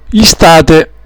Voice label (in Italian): "istate", dialetto; estate